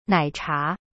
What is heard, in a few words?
"奶茶" nai cha, Chinese; cyberchinese